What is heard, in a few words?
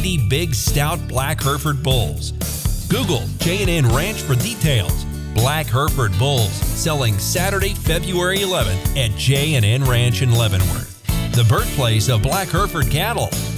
sale announcement; JN ranch